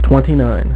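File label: "twenty-nine", male; MacCribbage; InterStellar MacCribbage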